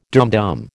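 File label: "dumdum", American English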